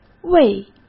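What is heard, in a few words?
"未", Mandarin Chinese; hsk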